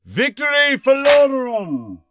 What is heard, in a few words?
Knight Angry; warcraft3